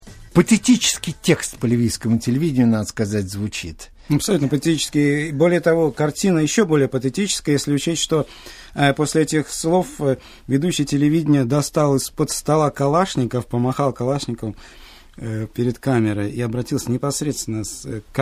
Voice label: russian